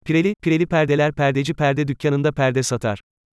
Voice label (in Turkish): pireli pireli perdeler perdeci perde dukkaninda perde satar, diksiyon egitimi